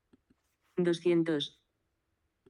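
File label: "doscientos" Spanish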